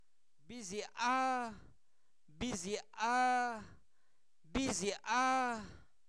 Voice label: cacao, Zapoteco, santo domingo albarradas; comestibles; INALI

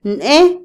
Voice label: "y", Otomí, mujer; Vocabulary; INALI

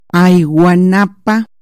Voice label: Ya me voy, Chontal

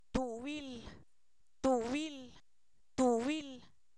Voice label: hilo, Zapoteco, san miguel albarradas; objetos inanimados

